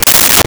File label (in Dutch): foei, Dialoog; Home-made